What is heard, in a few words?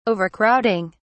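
"overcrowding", British English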